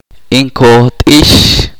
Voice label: "otro", chorti